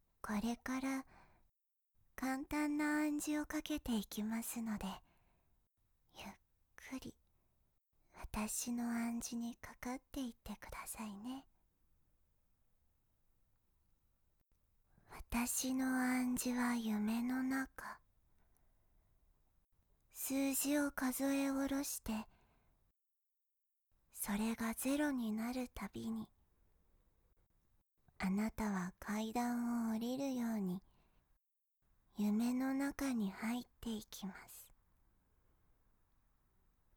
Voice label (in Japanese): カウント暗示 夢の中へ 効果音なし